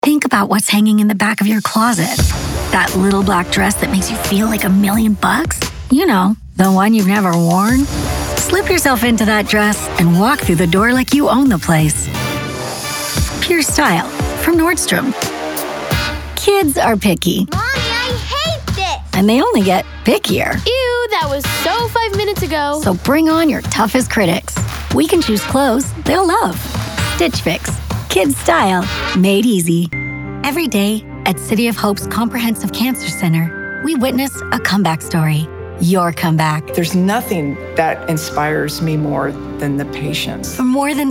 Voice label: Commercial